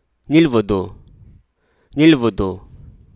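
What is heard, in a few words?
Hruso Aka; doting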